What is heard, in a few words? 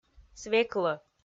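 "свеклӧ", Komi, female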